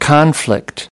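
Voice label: "conflict", English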